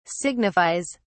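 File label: "signifies", English, British English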